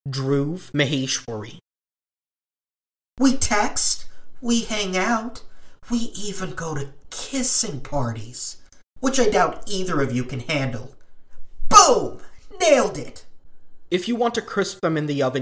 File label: animation demo